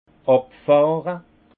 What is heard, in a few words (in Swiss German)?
"abfahre", alsace; vin; débutter